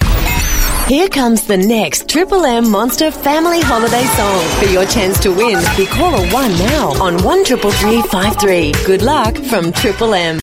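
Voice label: Australian English Promo; TripleM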